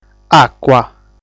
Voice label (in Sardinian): "Àcua", sardu